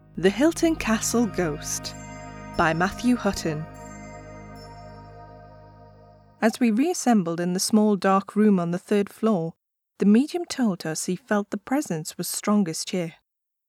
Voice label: english british audiobooks

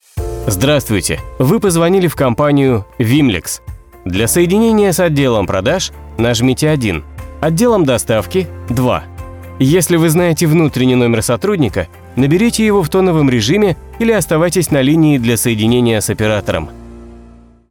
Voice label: russian ivr

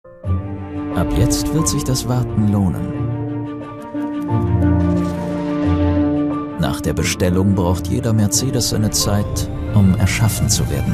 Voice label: german corporate

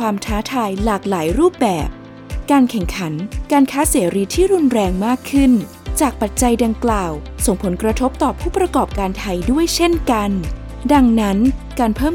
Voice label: thai corporate videos

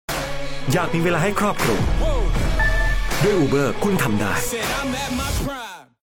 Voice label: thai corporate videos

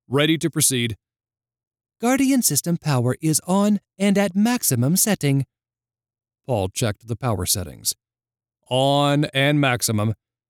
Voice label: english american audiobooks

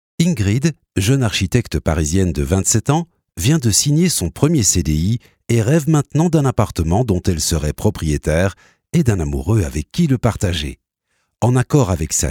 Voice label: french animation

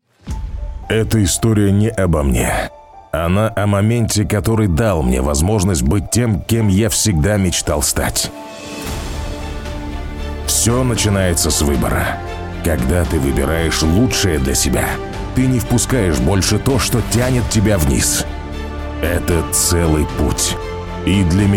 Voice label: russian automotive

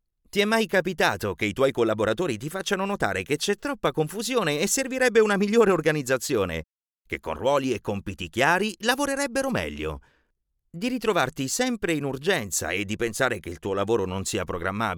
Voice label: italian corporate videos

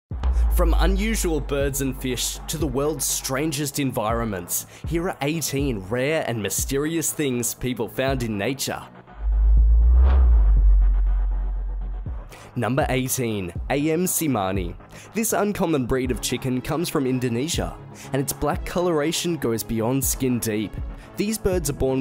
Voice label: english australian documentaries

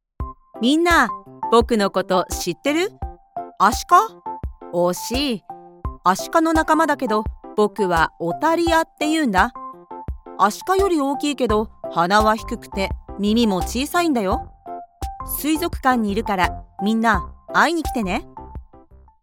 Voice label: japanese animation

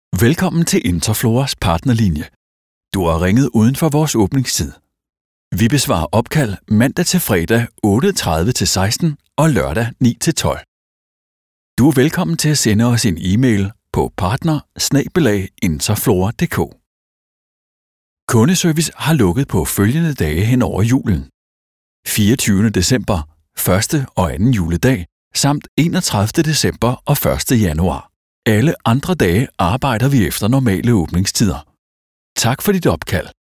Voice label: danish ivr

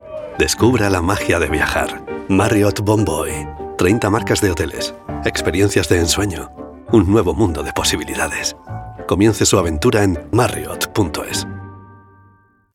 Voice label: spanish commercial

